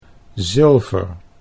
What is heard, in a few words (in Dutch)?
"zilver", Dutch; sieraden